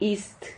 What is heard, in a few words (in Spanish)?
"ist", Silabas